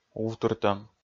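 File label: "увтыртӧм", Komi, male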